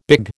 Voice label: "big", American